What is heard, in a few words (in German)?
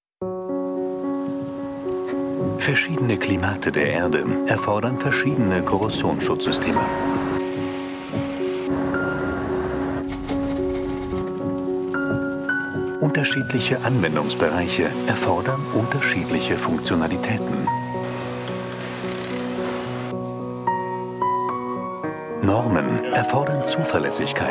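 imagefilm technik surfaces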